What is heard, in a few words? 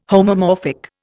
"homomorphic", Indian